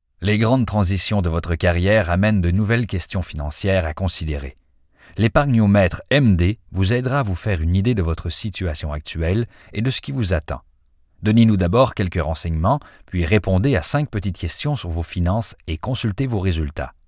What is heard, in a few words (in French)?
narration